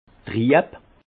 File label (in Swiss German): "trieb", Alsace; vin; trouble